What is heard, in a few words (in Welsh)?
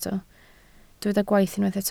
"gwaith", Welsh